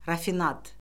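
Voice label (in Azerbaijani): "rafinad", Azerbaijani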